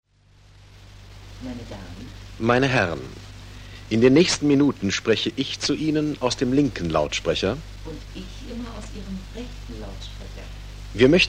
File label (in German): Stereotest